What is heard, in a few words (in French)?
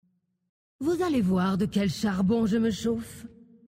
Terrestres Femme Blague Je Me Chauffe, VF